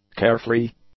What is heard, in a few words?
"carefree", English; adjectives